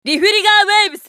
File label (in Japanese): ウォーロック女･リフリジャーウェーブ